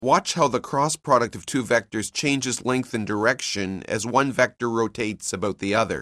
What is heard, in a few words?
narration; CALCULUS 7E; cengage